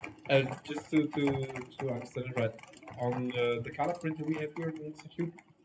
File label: conv meeting far city snr5